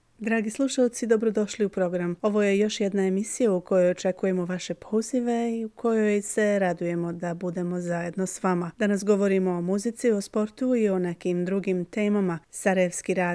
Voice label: Bosnian Radio Announcer Female